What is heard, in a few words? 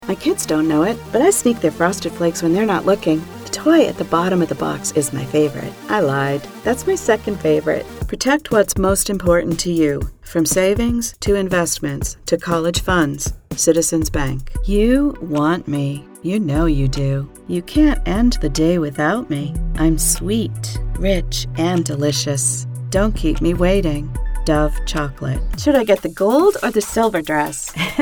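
Commercial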